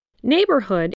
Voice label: "neighborhood", voice